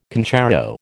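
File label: "concerto", American English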